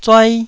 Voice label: "zuai1", taiwanese